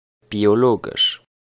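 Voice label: "biologesch", Luxembourgish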